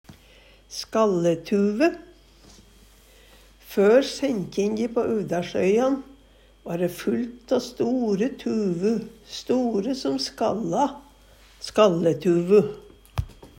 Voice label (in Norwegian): "skalletuve", Numedalsmål